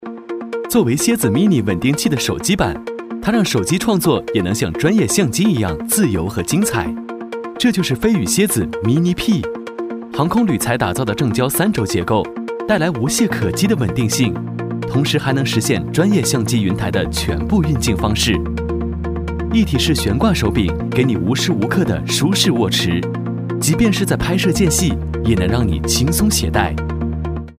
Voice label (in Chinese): 男 科技感; 飞宇拍摄器